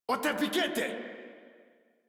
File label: Player Spotted Modular Corpus Male; Dialog; Barks; Battle; Warframe